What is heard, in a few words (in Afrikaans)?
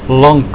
"lank", Afrikaans